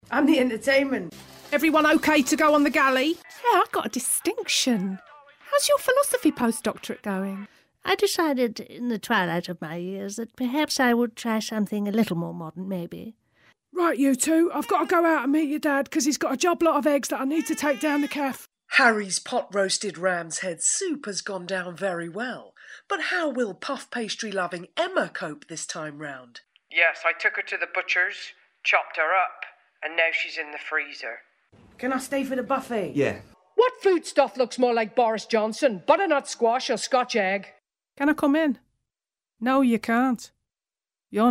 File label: character reel